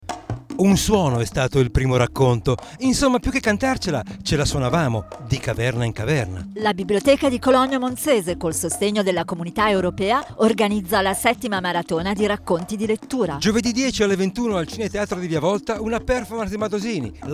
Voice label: spot